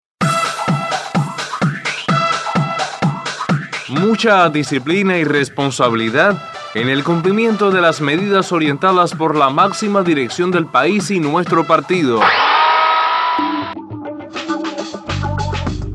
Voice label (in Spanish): promo coronavirus dama